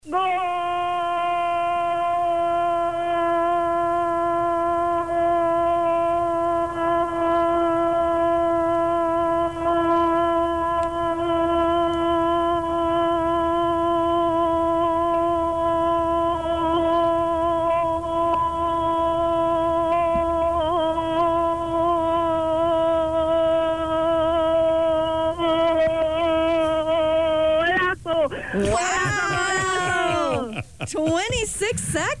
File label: Mexico Announcer style female